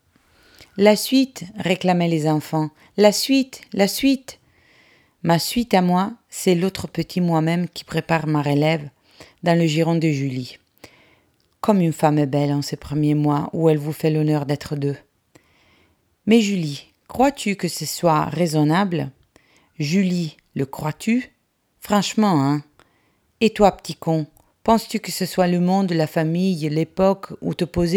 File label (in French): francais